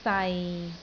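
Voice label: "sai6", Cantonese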